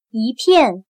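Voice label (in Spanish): "一片", chino mandarin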